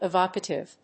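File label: "evocative", English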